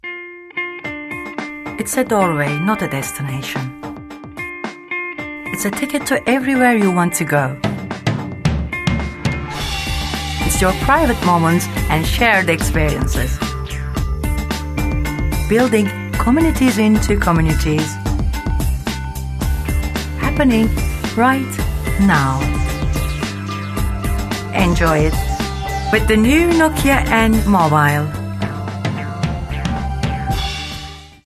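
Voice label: Turkish, English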